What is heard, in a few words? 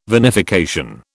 "vinification", British